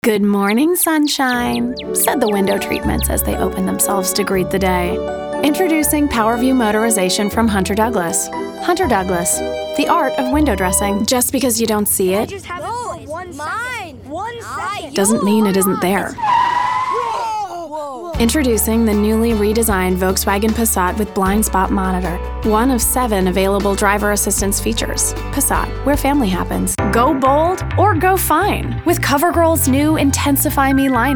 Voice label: Commercial